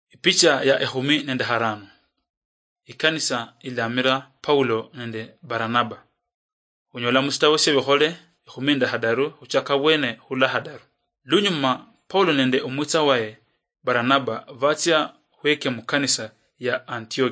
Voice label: acts of the holy spirit luyia marachi, drama; oluluyia; bible